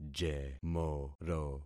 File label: "jemoro", concat syllables; Affixing experiment